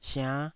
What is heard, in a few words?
"siann5", taiwanese